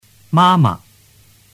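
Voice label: "mama", Mandarin Chinese; tu moi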